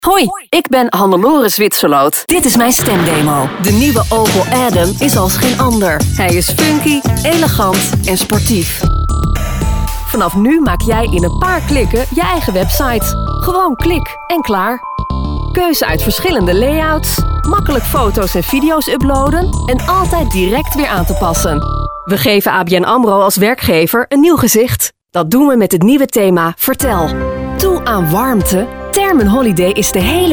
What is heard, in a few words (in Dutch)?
algemeen, voice over